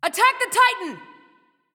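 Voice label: vox attack, male; smite; terra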